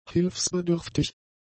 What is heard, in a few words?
"hilfsbedürftig", German; adjectives